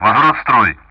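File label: Speech Sound Russian; DCS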